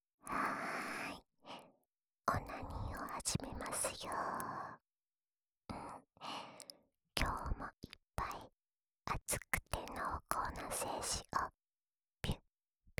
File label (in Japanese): オナサポ用しこしこボイスループ, ASMR